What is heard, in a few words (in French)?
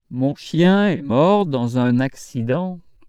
mon chien, surprise negative, voice transformation